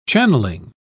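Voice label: "channelling", British English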